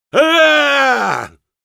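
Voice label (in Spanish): battlecry, Spanish; Yaaaaaaagh!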